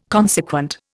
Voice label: "consequent", Indian

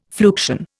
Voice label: "fluxion", Indian

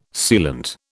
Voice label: "sealant", British

